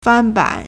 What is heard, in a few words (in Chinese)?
"fan1ban3", Chinese